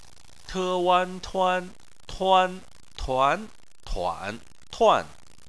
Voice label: "tuan", chinese